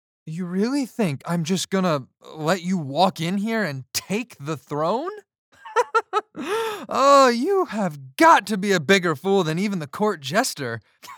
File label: Character Samples